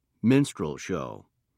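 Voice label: "minstrel show", British English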